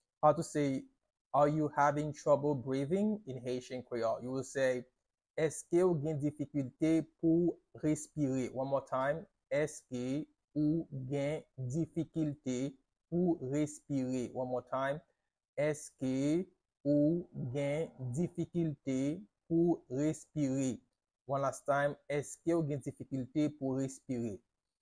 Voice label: Are you having trouble breathing in Haitian Creole Eske ou gen difikilte pou respire pronunciation